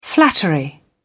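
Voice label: "flattery", British English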